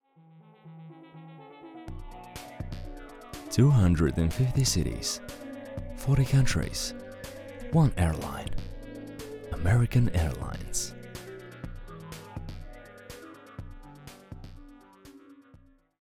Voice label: commercial accented

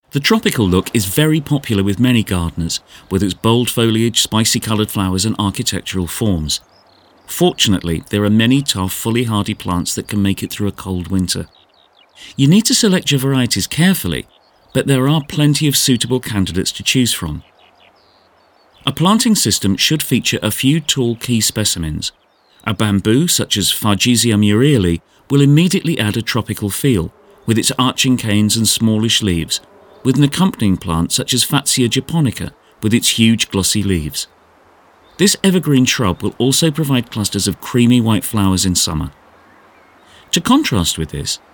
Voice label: Narration

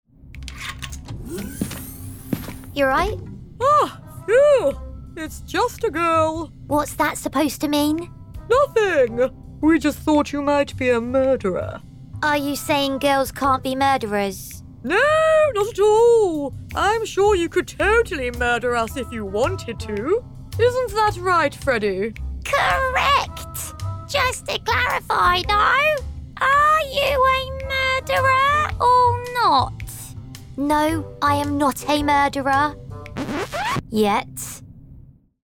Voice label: Animation

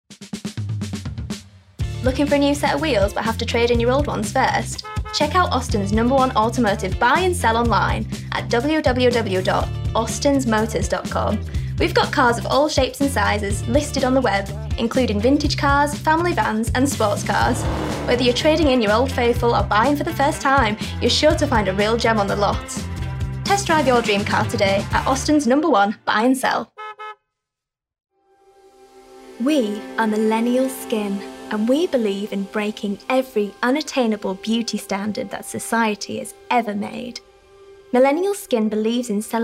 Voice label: Commerical Promo

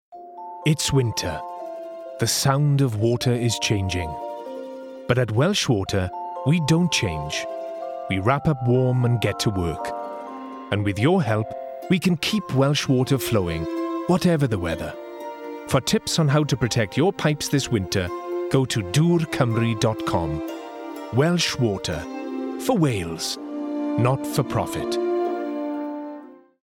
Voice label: Commercial Welsh Water

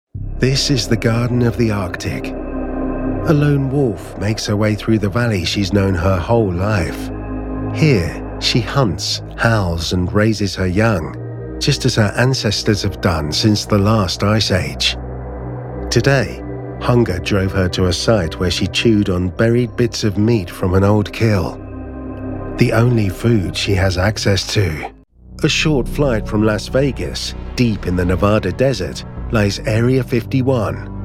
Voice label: Documentary

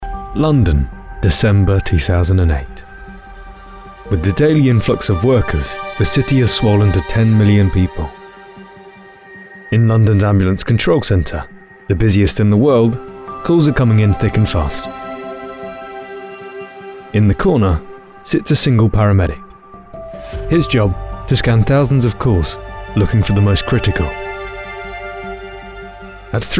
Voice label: TV NARRATION

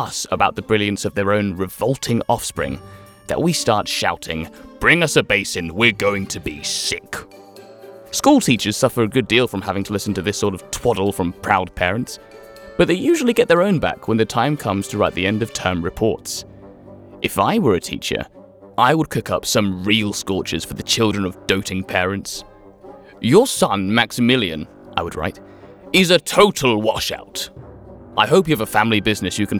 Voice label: Narration Sample with Music

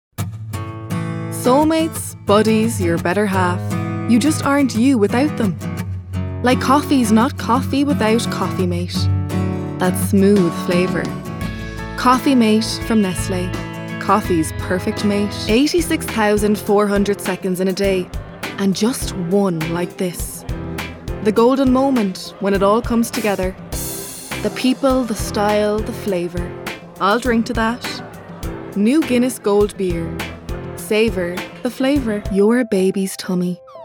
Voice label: COMMERICAL

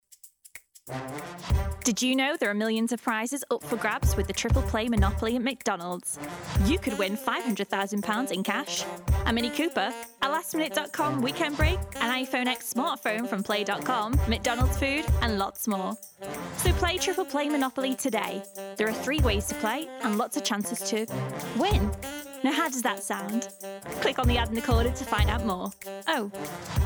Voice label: Advert; McDonalds

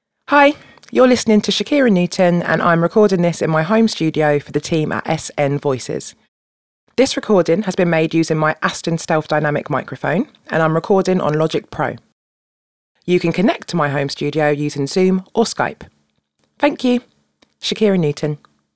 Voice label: Home Studio Remote

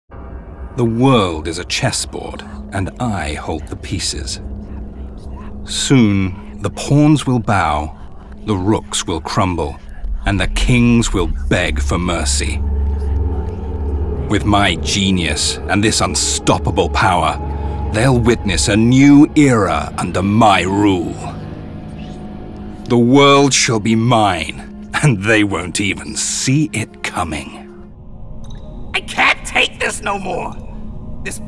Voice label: Character

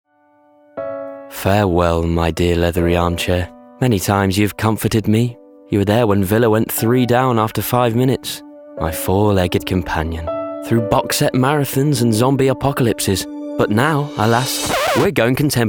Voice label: Commercial; Gumtree

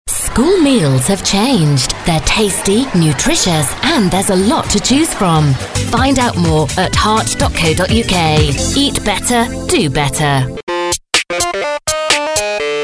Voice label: National Commercials

